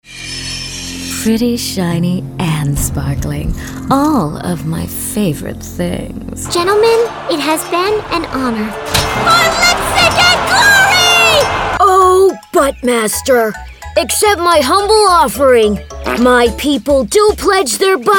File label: Animation